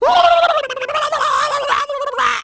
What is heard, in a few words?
mario shocked; smsunshine